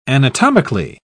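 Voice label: "anatomically", English, British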